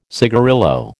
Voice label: "cigarillo", American